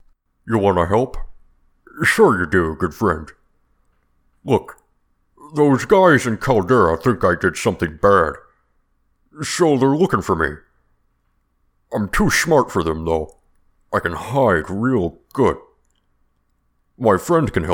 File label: orc audition